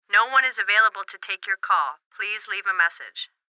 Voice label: Phone Message Male No One Is Available To Take Your Call Please Leave A Message; Operator Voice Clip Telephone Adult Male; Recorded Voice; Blastwave